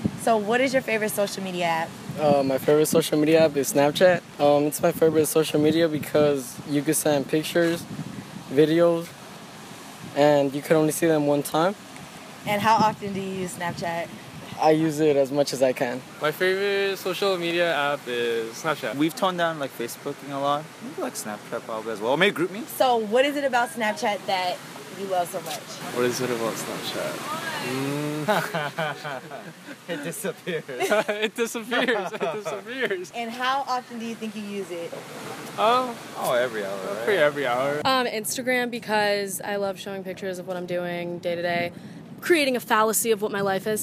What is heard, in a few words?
voxpop